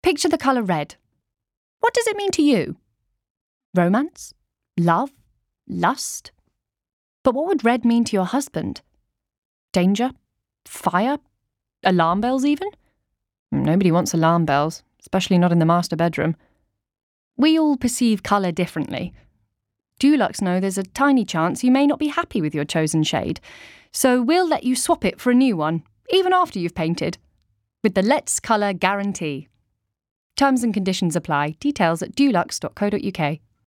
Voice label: natural